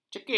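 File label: "cekit", Malay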